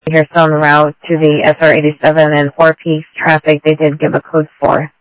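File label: Sheriff East County, scanner audio trunk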